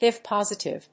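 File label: "HIV positive", English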